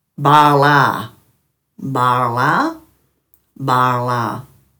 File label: "barlaa", Dhurga